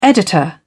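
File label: "editor", British English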